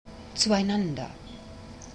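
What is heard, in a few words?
"zueinander", German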